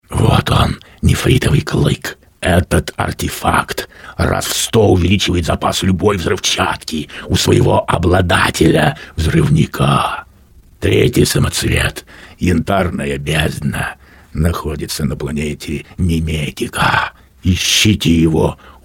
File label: narr